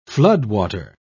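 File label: "floodwater", British English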